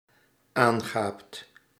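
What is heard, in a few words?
"aangaapt", Dutch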